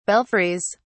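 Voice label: "belfries", British English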